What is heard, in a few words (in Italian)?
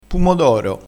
"pumodoro", crespinese